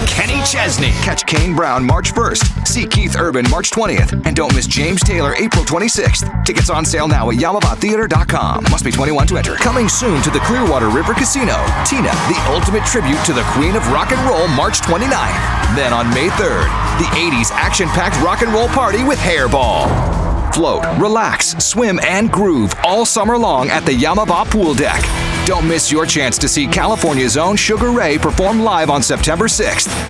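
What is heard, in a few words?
concert, American Male Voice; concert voice over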